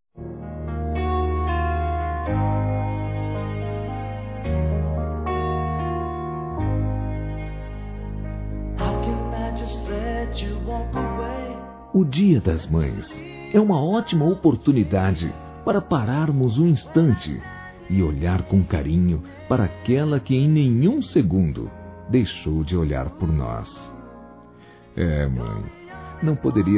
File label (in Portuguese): VOCÊ MERECE ATENÇÃO MÃE, Masc; Dia das Mães; Telemensagens 2017